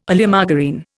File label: "Oleomargarine", Indian